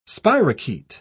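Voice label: "spirochaete", British English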